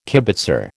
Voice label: "kibitzer", American